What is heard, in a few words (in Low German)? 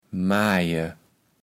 "Maje", kaevels platt